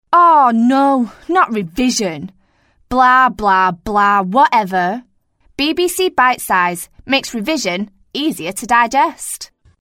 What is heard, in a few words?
Commercial; Bbc bitesize; Vocal Point